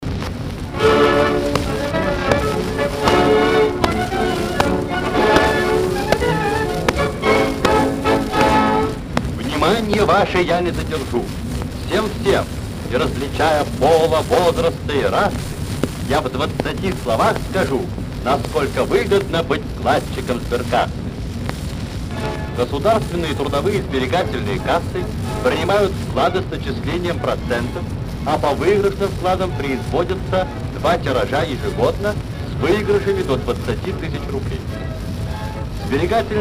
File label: reklama